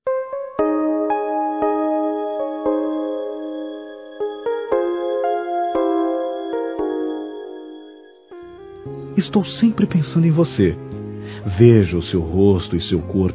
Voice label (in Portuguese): TORNAR MEU SONHO REAL (M), Telemensagens Sensuais masc